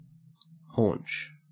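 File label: "haunch", Australian English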